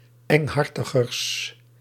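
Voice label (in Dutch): "enghartigers", Dutch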